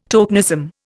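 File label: "tolbooth", Indian; Dictionary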